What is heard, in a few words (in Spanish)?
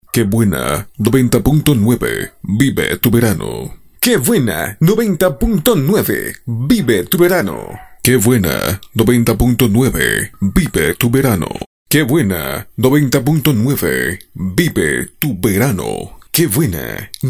VOZ OFF